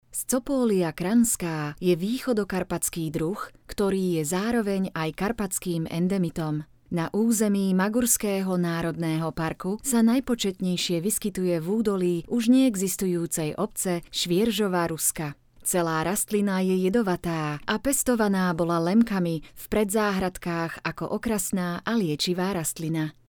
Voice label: Slovak narration; slovak voice over talent